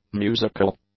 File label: "musical", English; adjectives